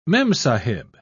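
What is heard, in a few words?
"memsahib", British English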